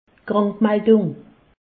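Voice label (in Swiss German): "krankmaldung", Alsatian; medecine; arrêt de travail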